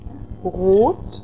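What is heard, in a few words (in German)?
"rot", German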